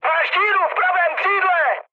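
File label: right wing, Czech, mood high; speech; War Thunder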